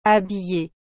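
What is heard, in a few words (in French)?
"habillee", French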